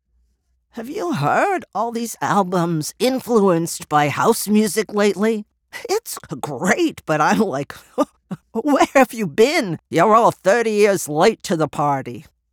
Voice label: Character